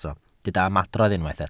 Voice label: "ymadrodd", Welsh